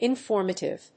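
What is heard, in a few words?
"informative", English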